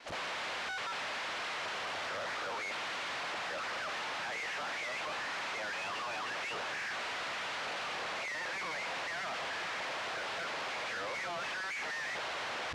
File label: unitelligible transmission